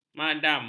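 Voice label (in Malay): "madam", Malay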